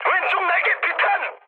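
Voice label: Korean left wing mood high, speech; War Thunder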